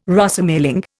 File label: "Rosemaling", Indian